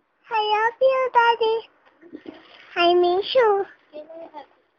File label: I Love You Daddy, funny baby; Ringtones